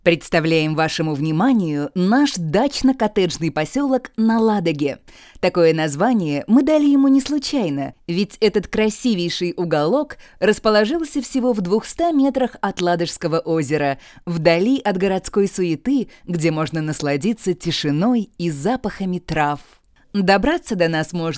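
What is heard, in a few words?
positive, Russian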